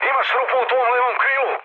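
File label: Serbian left wing mood med; speech; War Thunder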